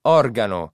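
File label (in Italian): "organo", Italian word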